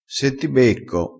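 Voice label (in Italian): "becca", vocabolario dialettale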